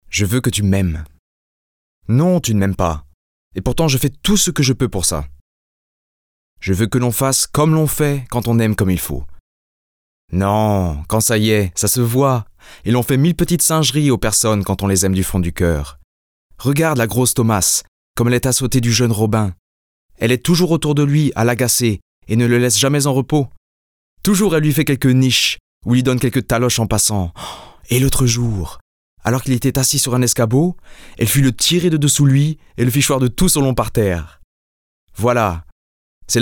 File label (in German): Französisch Erzählung verliebt Chti